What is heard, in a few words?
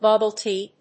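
"bubble tea", English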